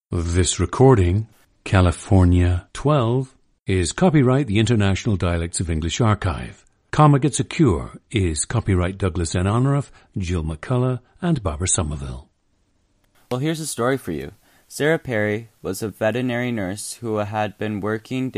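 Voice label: california, International Dialects of English; California 12; an 18-year-old man from Orange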